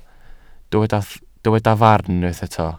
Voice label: "farn", welsh